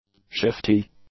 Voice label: "shifty", English; adjectives